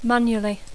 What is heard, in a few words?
"manually", English; Dacco